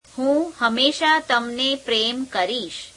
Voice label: gujarati, I will always love you; [verb + adverb]